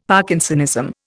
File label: "Parkinsonism", Indian; Dictionary